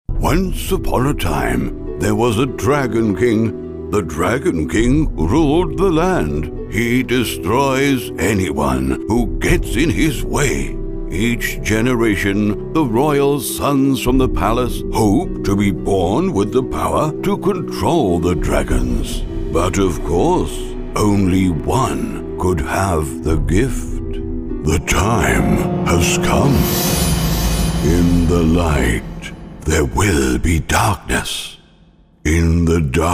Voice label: CHARACTER VOICE STORYTELLER OLD WIZARD, UK Voiceover